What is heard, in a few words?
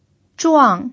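"zhuang4", Mandarin Chinese pinyin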